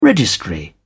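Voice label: "registry", British English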